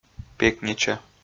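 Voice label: "пекнича", Komi, male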